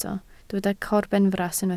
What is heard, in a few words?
"corbenfras", Welsh